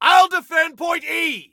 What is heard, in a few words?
ILL DEFEND, Male; T2 Male 01